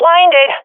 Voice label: blinded, swat fem; vo; agents; Source Model Packs; Counter Strike Model Pack; CS2